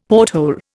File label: "porthole", Indian; Dictionary